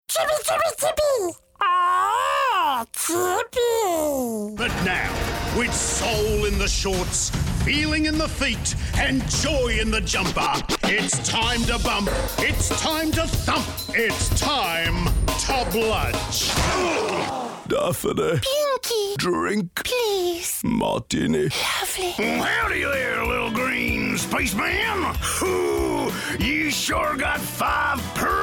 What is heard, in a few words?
character